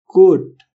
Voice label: "coat" boy; clothing